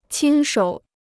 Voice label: "亲手", Chinese